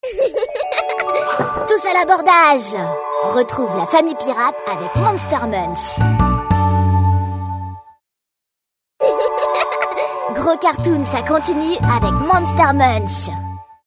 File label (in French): Voix Petit garçon; Monster Munch; Gulli